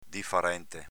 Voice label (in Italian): "difarente", dialetto veneto; difarčnte avv. diverso, differente